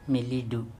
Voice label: "miliDu", andamanese; pigeon

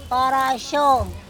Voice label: "kOrOSo", andamanese

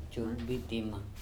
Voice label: "cokbi", andamanese; young turtle

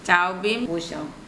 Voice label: "cao bem boSom", andamanese

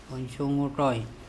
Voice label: "onSongoToy", Andamanese; skeleton

